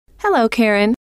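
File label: "hello", female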